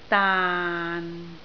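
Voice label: "daan6", Cantonese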